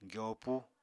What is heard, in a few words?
"gyopu", Apatani